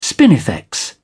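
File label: "spinifex", British English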